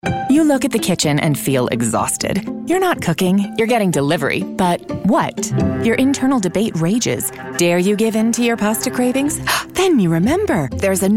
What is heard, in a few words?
Commercial, Female Voice Over